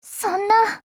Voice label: LOW MOOD JP; Danuvia43M

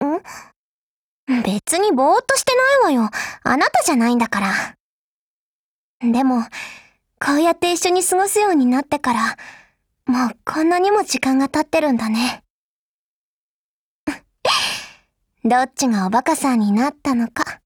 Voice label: DIALOGUE WEDDING JP; UMP45 Mod

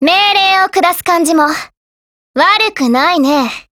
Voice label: COMBINE, JP; Mk12

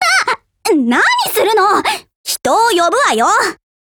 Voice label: JP; SR3MP; DIALOGUE

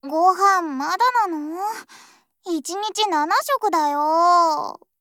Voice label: HELLO JP; 56-1type

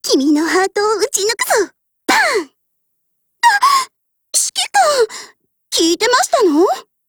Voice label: DIALOGUE JP

